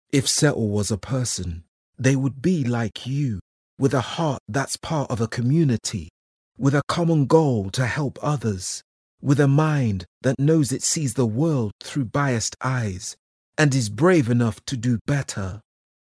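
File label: British English, male, Black British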